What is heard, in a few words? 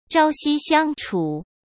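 "朝夕相处", Mandarin Chinese; chinese-flashcards; hsk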